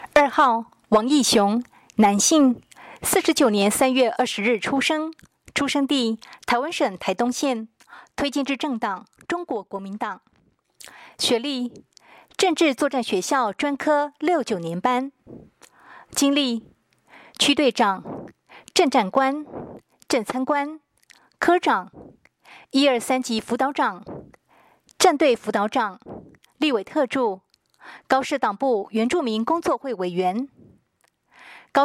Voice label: 國語, 有聲選舉公報; 高雄市; 高雄市市長議員有聲選舉公報; 第十二選區

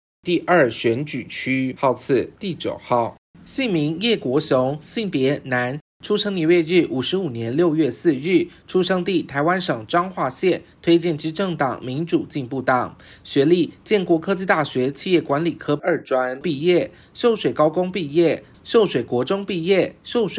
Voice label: 國語; 彰化縣; 有聲公報; 縣議員; 第二選區

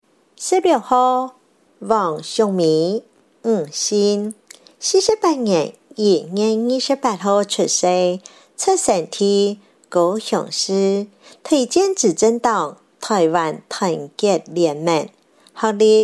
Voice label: 客語; 高雄市; 高雄市市長議員有聲選舉公報; 第七選區

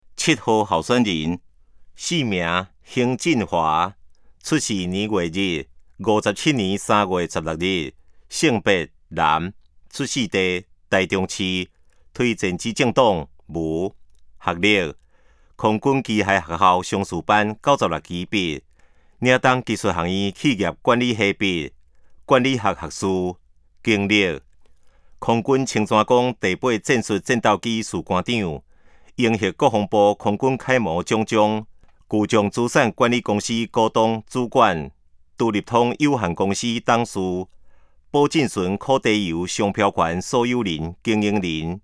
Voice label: 台語; 臺中市; 有聲公報; 市議員; 第05選區

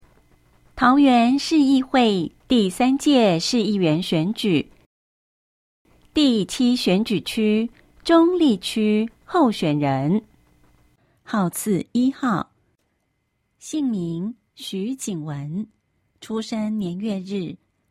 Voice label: 國語; 有聲公報; 市議員

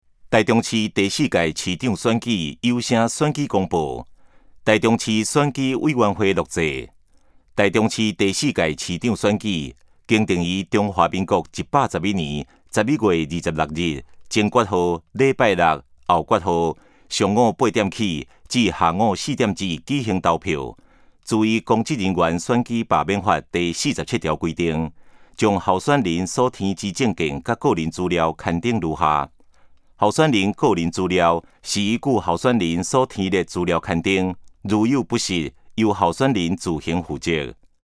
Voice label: 臺語; 臺中市; 有聲公報; 市長

